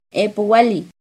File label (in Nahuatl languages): "epohualli", Náhuatl; sesenta; NAHUATLAHTOLLI